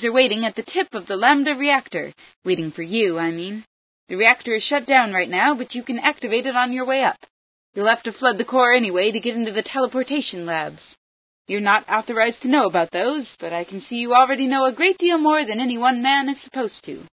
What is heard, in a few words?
straws, female